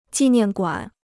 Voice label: "纪念馆", Chinese